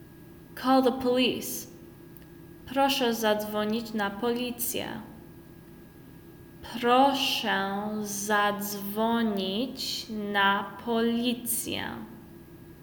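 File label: Call the police, Polish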